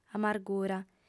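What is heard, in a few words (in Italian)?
"amargura", lingua sarda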